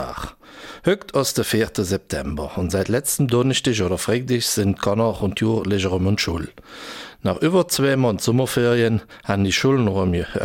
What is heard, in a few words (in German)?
Eifeler Mundart; Schulanfang